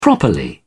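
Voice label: "properly", British English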